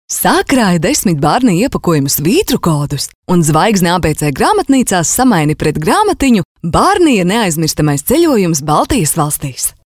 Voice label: premium female, balsai latviu moteris